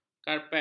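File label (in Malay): "karpet", Malay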